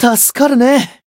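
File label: healed, Japanese; nero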